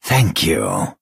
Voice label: Argonian male "thank you"

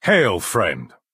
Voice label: male "hello"; Breton

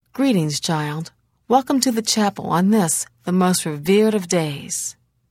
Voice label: Holiday Greeting Redguard Female; Unused

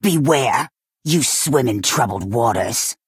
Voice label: Argonian female taunt

